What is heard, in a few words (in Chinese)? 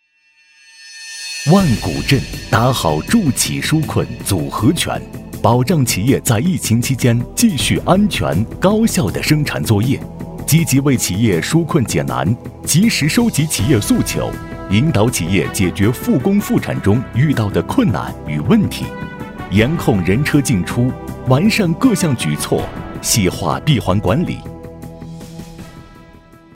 男 企业专题 大气